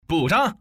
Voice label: common man